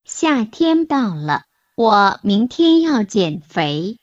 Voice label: Mandarin Conversation